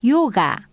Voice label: Spanish yoga; samsung YP-U5; Audio User Interface